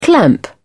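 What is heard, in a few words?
"clamp", British English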